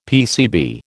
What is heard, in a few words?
"PCB", American